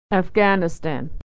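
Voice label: "afghanistan", American English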